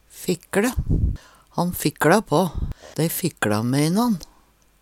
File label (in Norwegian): fikkLe, Numedalsmål; Verb